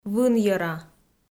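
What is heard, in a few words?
"вынйӧра", Komi, female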